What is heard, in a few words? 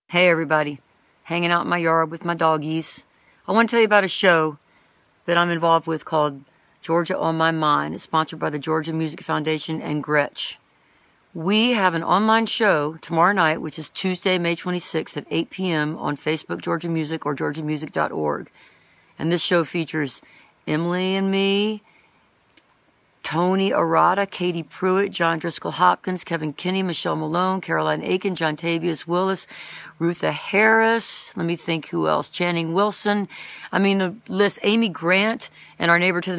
promo